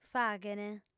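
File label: "faghent", Sardinian, female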